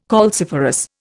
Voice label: "calciferous", Indian